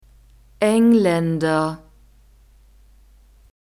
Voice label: "englaender", German